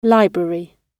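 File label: "library", British English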